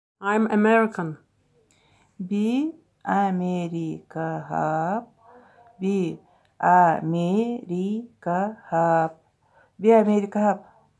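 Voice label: I am American, Buryat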